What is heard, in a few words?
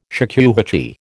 "shakuhachi", American